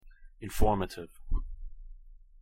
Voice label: "informative", word